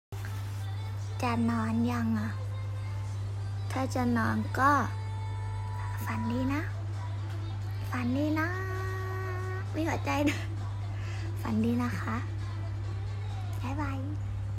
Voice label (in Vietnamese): tieng noi chuyen de thuong